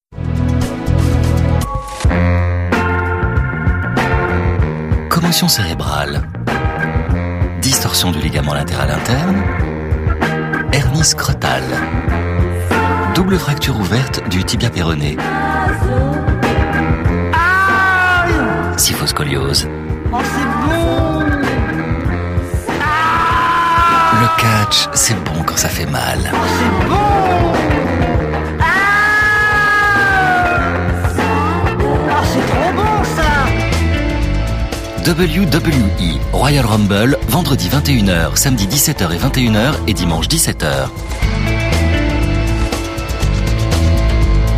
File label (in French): détaché ironique